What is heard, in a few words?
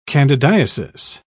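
"candidiasis", British English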